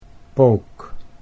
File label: "pook", Dutch